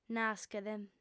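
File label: "naschet", female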